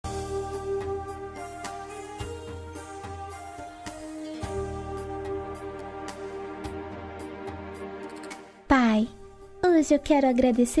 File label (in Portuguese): dia dos pais voz infantil feminina